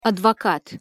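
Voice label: "advokat", Russian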